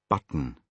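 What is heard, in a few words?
"button", British English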